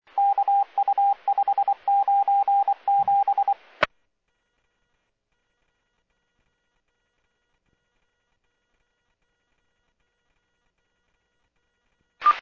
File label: Medevac, scanner; NativeAirAmb